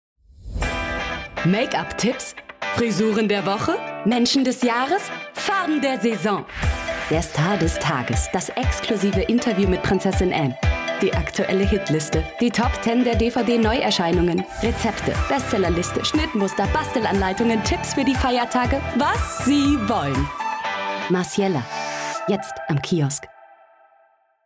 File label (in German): Werbung Zeitschrift Musik